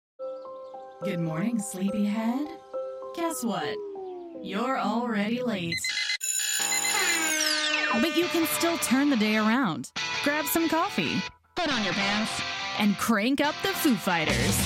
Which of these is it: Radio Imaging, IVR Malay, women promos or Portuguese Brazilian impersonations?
Radio Imaging